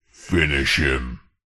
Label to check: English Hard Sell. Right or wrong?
Wrong